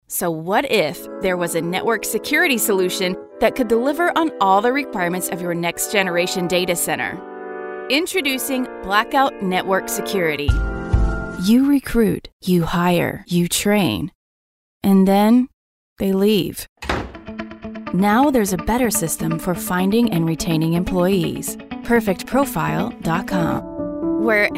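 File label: Corporate, voice actor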